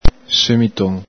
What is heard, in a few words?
"semiton", Veneto